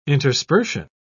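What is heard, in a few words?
"interspersion", British English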